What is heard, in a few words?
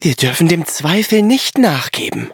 loading, de; Cookie Run